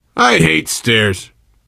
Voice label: world hospital, player survivor voice biker

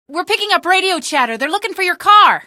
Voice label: chatter, female; Source Engine; video game; coast; barn

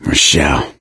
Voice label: survivor mourn, survivor voice; Left 4 Dead 2; Source Engine; video games; gambler

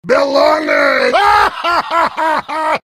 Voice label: heavy sandwich taunt, vo

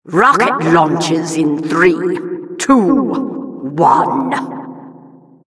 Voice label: announcer rocket launch

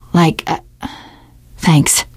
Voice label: thanks, teen girl; survivor voice

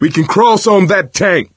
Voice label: misc directional, coach, survivor voice

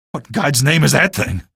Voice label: alert zombie, male; video games; npc; source engine; episodic; episode 1

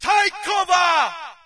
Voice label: brit take cover; player; Source Engine; dod

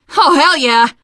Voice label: nice job, teengirl; survivor voice

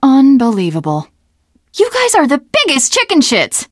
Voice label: opening door, teengirl; video game; Left 4 Dead; Source Engine; survivor

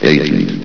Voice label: "agent", vox; Source Engine; bshift

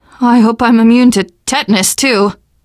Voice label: going to die light, teen girl; voice; source engine; left 4 dead 2; survivor

